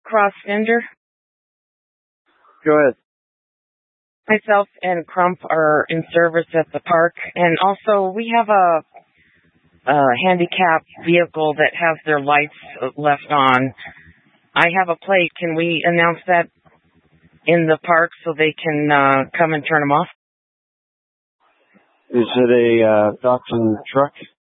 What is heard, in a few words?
Incident Tac 3, Scanner audio recording